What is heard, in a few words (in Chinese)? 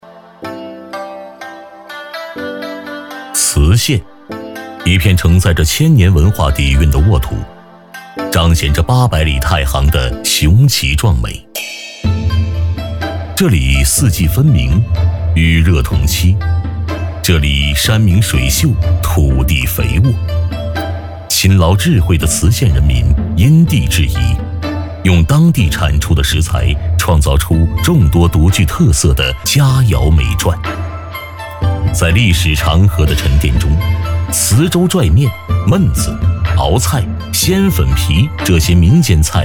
男 宣传片; 磁县